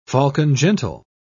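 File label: "falcon-gentle", British English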